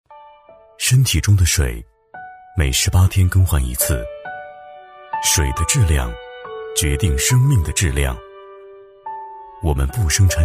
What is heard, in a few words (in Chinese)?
男 品质广告 大气品质; 大气沉稳 大气浑厚; 农夫山泉